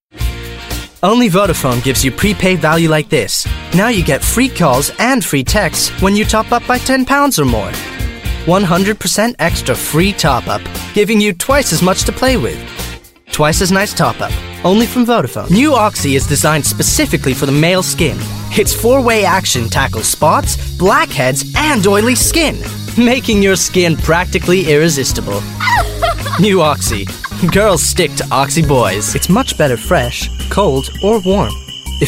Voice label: English US

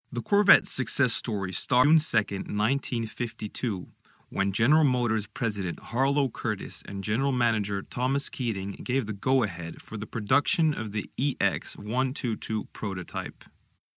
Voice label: English US

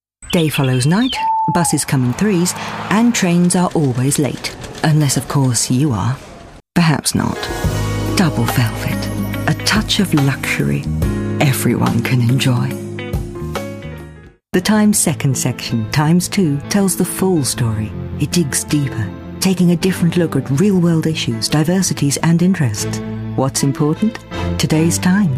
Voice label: English Uk

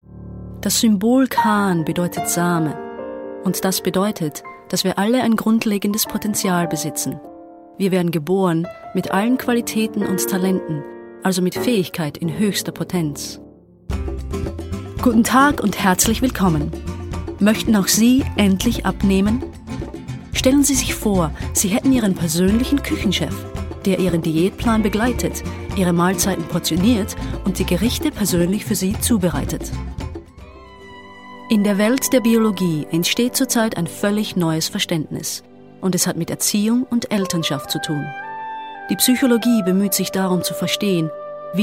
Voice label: German

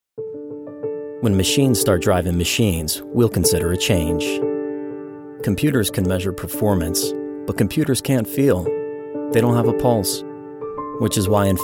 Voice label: English US

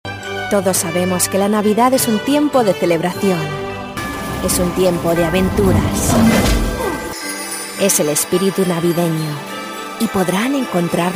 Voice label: Spanish EU

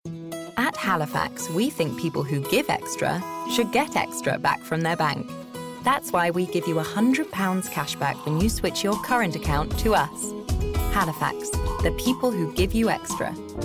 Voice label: English UK

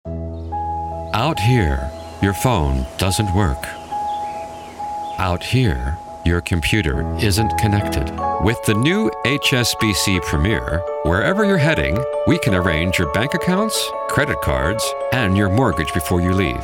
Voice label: English US

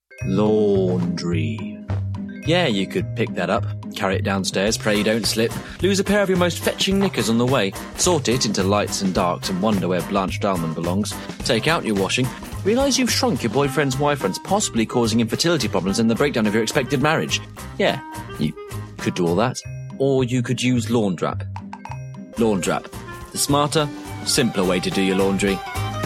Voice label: English UK

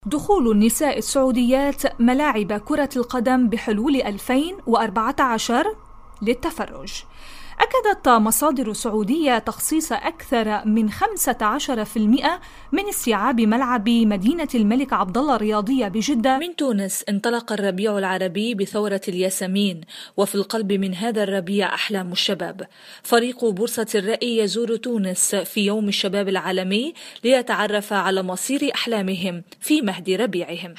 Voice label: Arabic

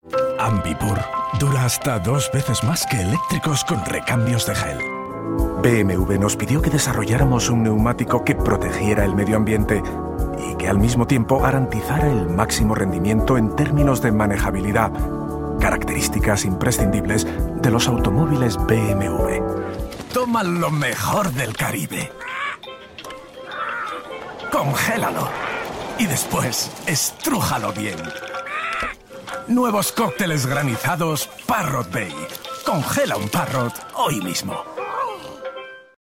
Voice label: Spanish EU

